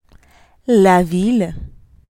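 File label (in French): "la ville", French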